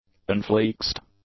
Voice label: "unflexed", english; adjectives

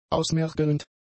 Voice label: "ausmergelnd", German; adjectives

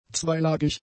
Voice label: "zweilagig", German; adjectives

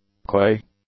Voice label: "coy", English; adjectives

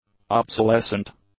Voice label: "obsolescent", english; adjectives

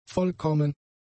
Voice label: "vollkommen", German; adjectives

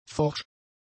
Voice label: "forsch", German; adjectives

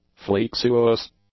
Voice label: "flexuose", english; adjectives

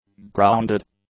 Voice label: "grounded", english; adjectives

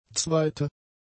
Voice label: "zweite", German; adjectives

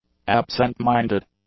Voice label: "absentminded", english; adjectives

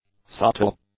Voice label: "subtle", English; adjectives

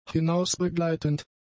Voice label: "hinausbegleitend", German; adjectives

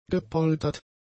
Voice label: "gepoltert", German; adjectives

